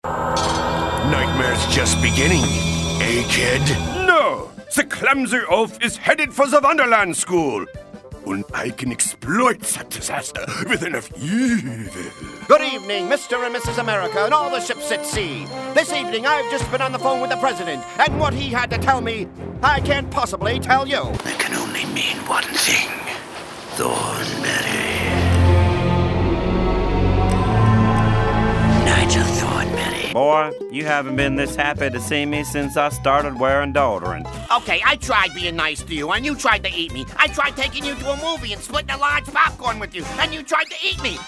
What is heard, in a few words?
animation, voiceover